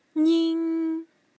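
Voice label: "ning", Mandarin, tone 1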